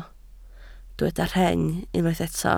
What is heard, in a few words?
"rheng", Welsh